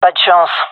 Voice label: negative, gendermerie fem; vo

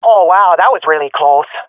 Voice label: close call, swat fem; vo; agents; Source Model Packs; Counter Strike Model Pack; CS2

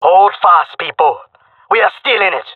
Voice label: round losing, male; player vo; Counter Strike Model Pack; CS:GO

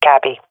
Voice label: affirmation, swat fem; vo; agents; Source Model Packs; Counter Strike Model Pack; CS2

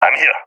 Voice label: reporting in, balkan; vo; balkan epic

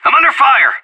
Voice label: radio taking fire, anarchist

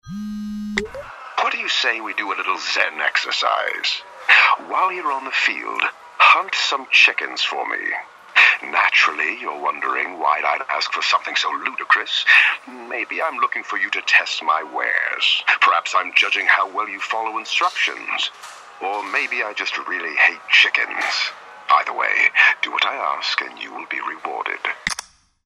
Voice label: radio; campaign; bloodhound; booth

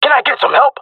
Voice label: request help, jungle male; player vo; Counter Strike Model Pack; CS:GO

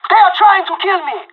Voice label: radio taking fire; Counter Strike Model Pack; CS:GO

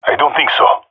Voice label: disagree, jungle male epic; vo; agents; Source Model Packs; Counter Strike Model Pack; CS2

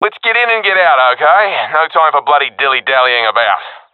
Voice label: round start, professional; vo; Counter Strike Model Pack; CS:GO

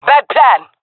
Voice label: disagree, fem; player vo; Counter Strike Model Pack; CS:GO

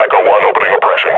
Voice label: under attack, combine grunt

